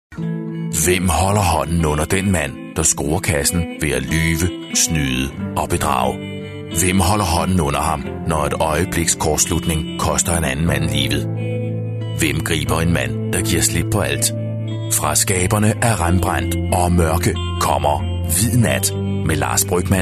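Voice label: Danish male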